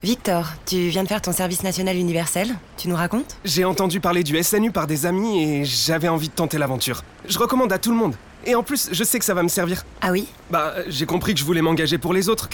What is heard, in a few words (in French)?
SPOT RADIO